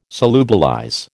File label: "solubilize", American